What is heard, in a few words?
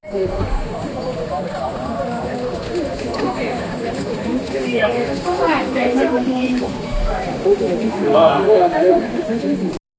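people talk in courtroom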